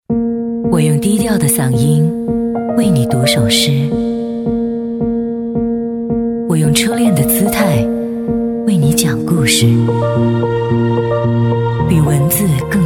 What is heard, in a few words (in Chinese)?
电台, 读诗; 蓝莓之夜读诗